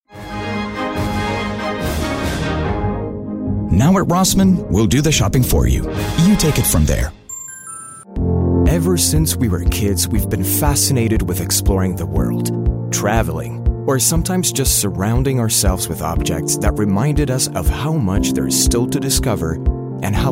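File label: voice-over US English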